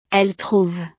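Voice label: "elles trouvent", French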